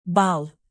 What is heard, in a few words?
"bal", Turkish native